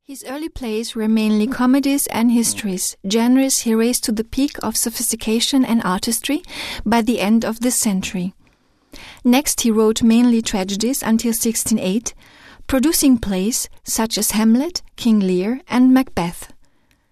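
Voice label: US English, commentary, Neutral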